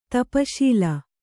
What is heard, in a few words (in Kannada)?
"ತಪ್ಸೀಲು", Kannada